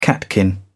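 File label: "catkin", British English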